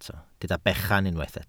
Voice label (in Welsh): "bechan", Welsh